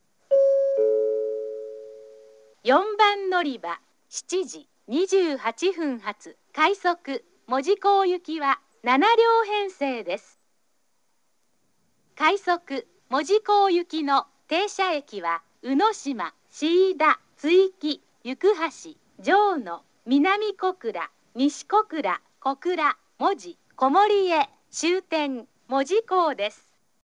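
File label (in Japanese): 4番のりば案内放送（快速 門司港行き）, 中津駅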